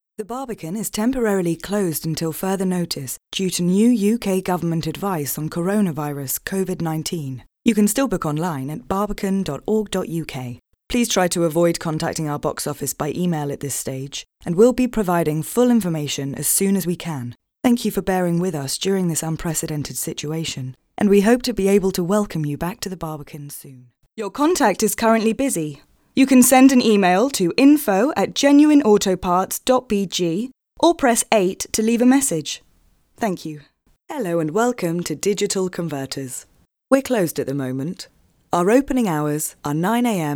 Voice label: female English ivr compilation